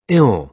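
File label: "ill", British English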